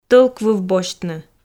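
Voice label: "тӧлквыв босьтны", Komi, female; audio dict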